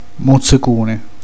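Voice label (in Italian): "morso", Italian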